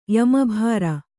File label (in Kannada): "ಯಮಭಾರ", Kannada